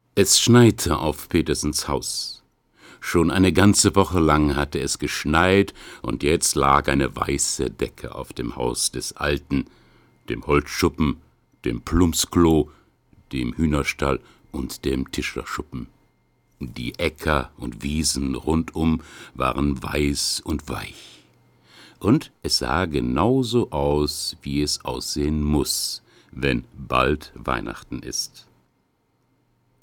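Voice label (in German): weihnachtsmärchen, sprecher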